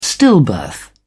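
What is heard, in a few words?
"stillbirth", British English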